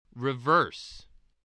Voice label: "reverse", voice spelling

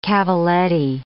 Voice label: "cavalletti", English

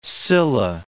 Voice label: "Scylla", English

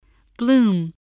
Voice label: "bloom", spelling

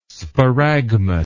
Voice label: "sparagmos", english

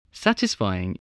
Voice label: "satisfying", english spelling

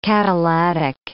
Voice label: "catalatic", English

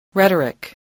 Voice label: "rhetoric", English

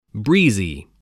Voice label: "breezy", spelling

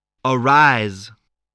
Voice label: "arise", English

